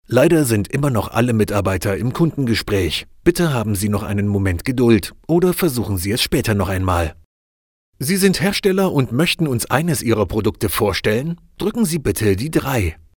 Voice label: Answering Machine